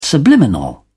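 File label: "subliminal", British English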